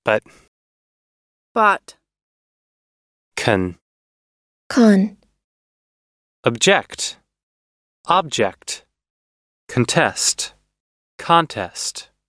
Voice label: Unacented Schwa Minimal Pairs